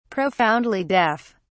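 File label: "profoundly deaf", British English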